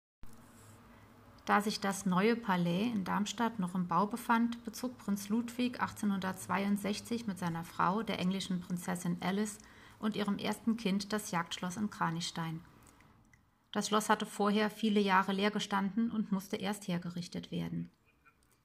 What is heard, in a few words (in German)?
Hörspiel; Victoria